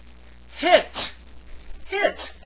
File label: "hit", pronounce it